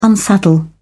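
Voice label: "unsaddle", English, British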